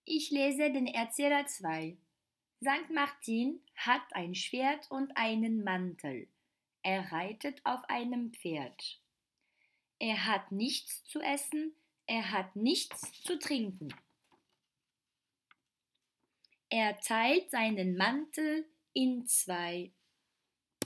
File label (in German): Erzähler